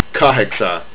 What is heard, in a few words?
eight, estonian